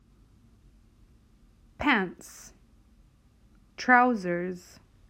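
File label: "Pants trousers", English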